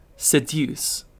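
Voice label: "seduce", British English; pronunciations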